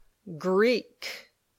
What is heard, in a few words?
"Greek", English